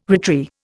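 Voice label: "retry", Indian English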